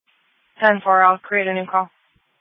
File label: Sheriff Dispatch